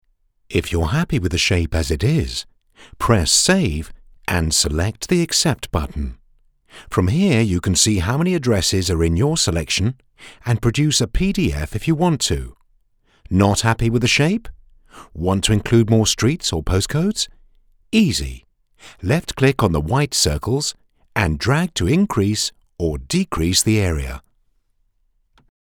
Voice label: Instructional Video